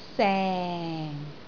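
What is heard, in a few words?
"seng4", Cantonese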